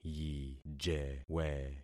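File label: "yijewe", concatenated syllables; Affixing experiment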